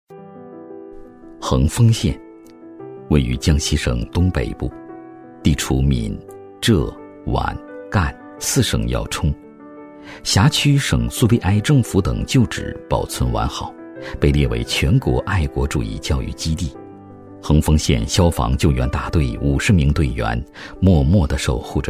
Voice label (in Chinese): 男 人物专题 自然讲解; 消防人物; 高端内涵